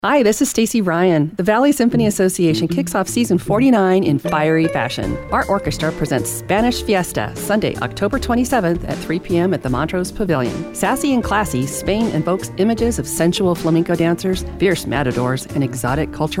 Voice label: Radio Spot Concert